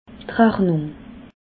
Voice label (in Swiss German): "rachnung", alsace